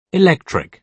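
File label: "electric" British English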